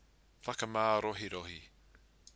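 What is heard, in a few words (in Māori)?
"whakamarohirohi", Māori word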